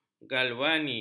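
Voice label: "galvani", Malay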